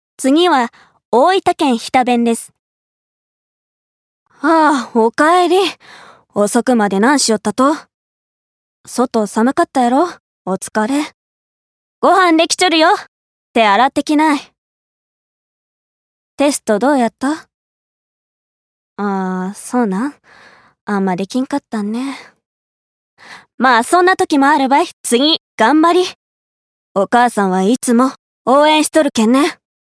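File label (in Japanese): 方言, 日田弁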